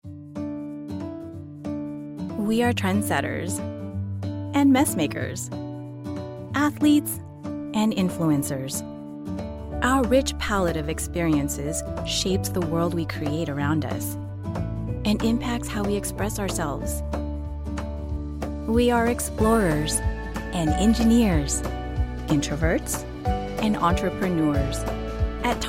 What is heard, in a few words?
english american corporate videos; Filipina, Asian-American professional voice